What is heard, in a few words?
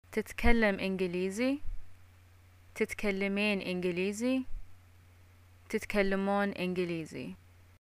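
do u speak eng, Bahraini arabic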